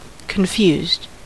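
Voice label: "confused", American English; pronunciations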